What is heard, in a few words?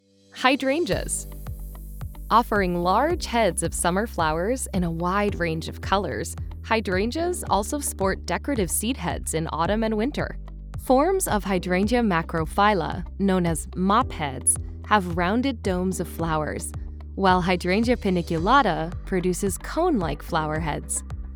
english american narration; Estados Unidos da América